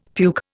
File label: "puke", Indian; Dictionary